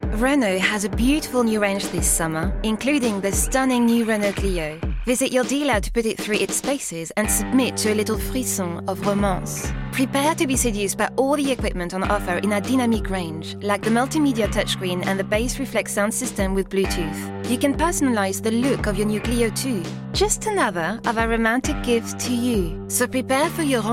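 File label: Promotional, English accented; GoLocalise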